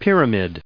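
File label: "pyramidal", us English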